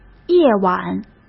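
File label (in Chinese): "夜晚", Mandarin Chinese; chinese-flashcards; hsk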